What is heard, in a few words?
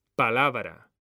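"palabra", Spanish; mekanta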